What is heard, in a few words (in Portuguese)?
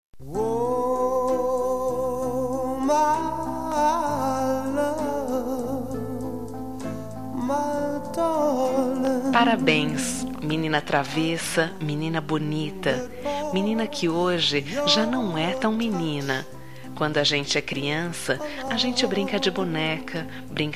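Aniversário de 15 Anos, female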